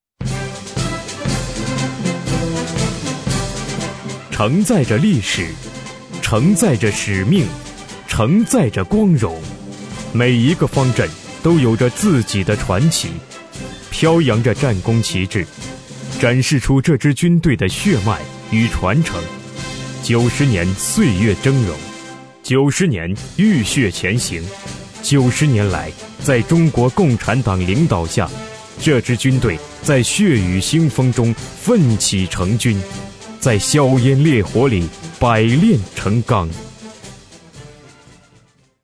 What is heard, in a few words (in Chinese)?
男声 宣传片 稳重有力